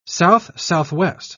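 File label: "south-southwest", English, British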